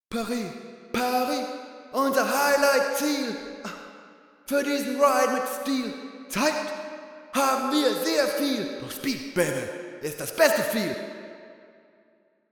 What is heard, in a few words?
Stimme RIDE HaloVerb GoodVerb 2 Wet 68; Metric Halo v4